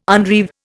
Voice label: "unreeve", Indian